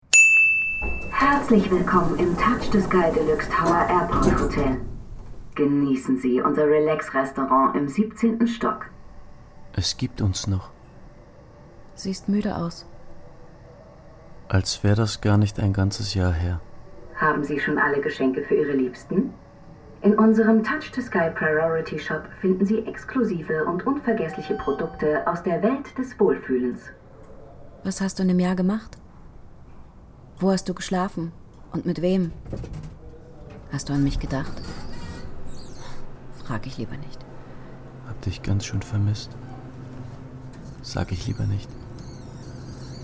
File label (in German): Werbung Rolle Hörspiel; Fuck You Mon Amour